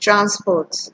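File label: "transport", Nigerian Pidgin, female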